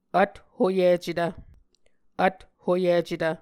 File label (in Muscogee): "vthoyicetv", Muscogee